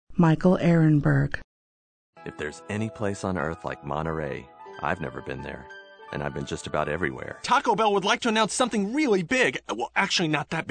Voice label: male voice